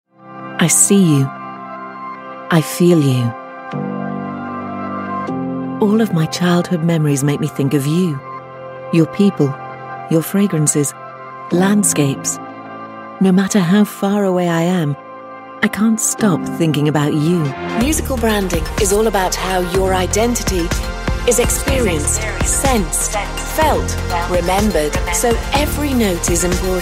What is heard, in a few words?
corporate narration